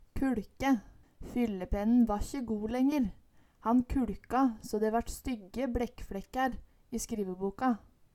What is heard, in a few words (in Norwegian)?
kuLke, Numedalsmål